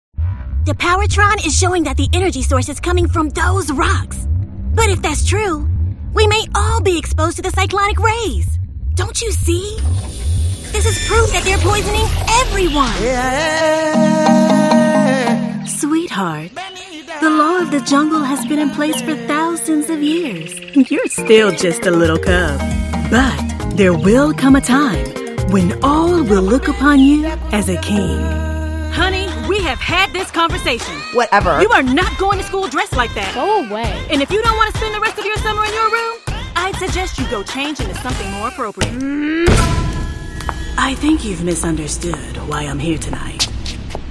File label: English American animation; African-American, full-time voice actor